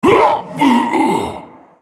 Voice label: dying, en US; GatekeeperGalio; Character Voices - English